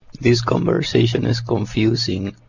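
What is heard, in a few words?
Confused, voice clips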